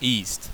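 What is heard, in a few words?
"east", English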